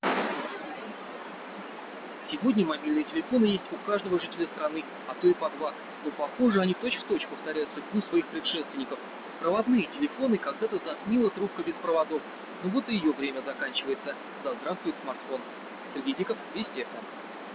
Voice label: rnoise +03dB enh